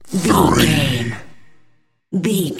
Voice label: announcer voice classic fps style "three"